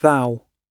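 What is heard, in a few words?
"thou", British English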